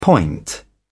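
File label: "point", British English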